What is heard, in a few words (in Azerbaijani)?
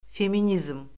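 "feminizm", Azerbaijani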